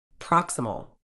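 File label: "proximal", medical English, British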